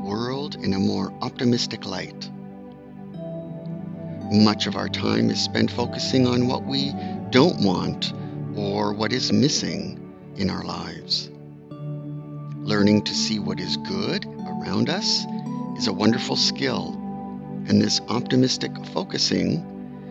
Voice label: Gratitude Meditation with Music